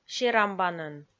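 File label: "серамбанӧн", Komi, female; kpv audio dict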